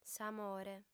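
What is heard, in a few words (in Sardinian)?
"s'amore", female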